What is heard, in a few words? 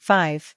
"five", voice